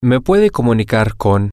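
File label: can you put me through to X, Spanish, male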